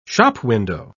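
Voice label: "shopwindow", British English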